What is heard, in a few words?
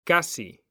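"CASI", Spanish, male; mekanta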